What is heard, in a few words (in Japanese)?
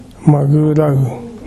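"magurau", 南山形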